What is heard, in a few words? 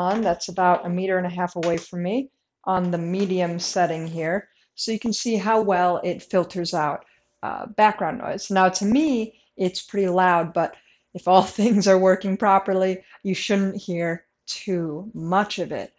Jabra Elite 45h microphone